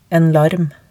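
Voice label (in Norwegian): "en larm", Norwegian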